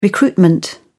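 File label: "recruitment", British English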